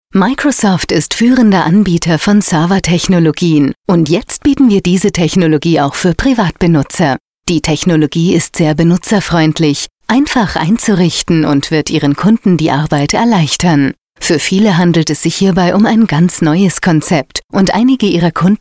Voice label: German e-learning